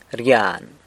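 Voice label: "rgyan", San Lucas Quiaviní Zapotec; feeds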